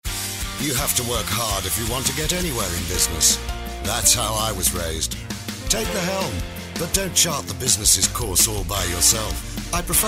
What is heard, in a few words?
conversational real person